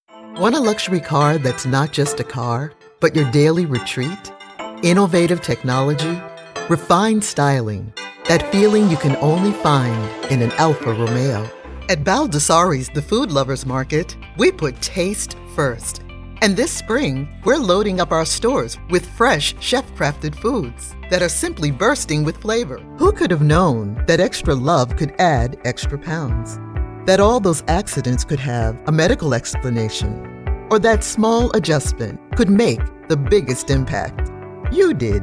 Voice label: Commercial